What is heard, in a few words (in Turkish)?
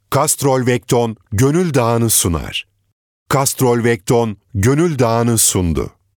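sundu sunar, sicak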